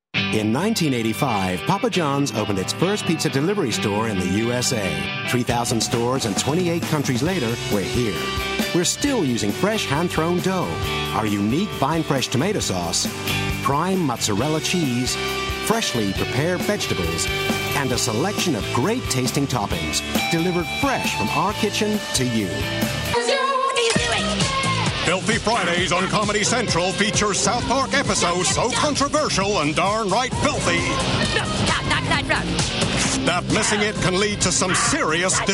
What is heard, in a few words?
english uk male generic